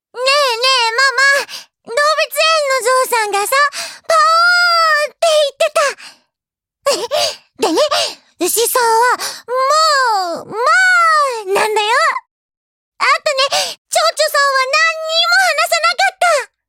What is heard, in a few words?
shoujo